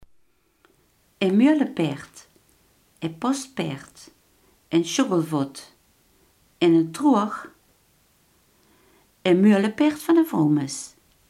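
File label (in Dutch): iemand die groot en log is, Limburgse gesproken woordenboeken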